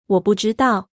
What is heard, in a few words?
i dont know, mandarin; 我不知道; (wǒ bù zhīdào); Chinese (Mandarin)